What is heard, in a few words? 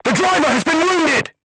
voice message driver wounded, vietnamese